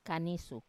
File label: "kanisu", saliva, to spit, Wamesa